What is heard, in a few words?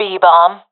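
loc b, professional female; Counter Strike Model Pack; CS:GO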